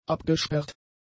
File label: "abgesperrt", German; adjectives